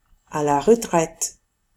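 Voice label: "retraite", French; famille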